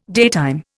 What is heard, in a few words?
"daytime", Indian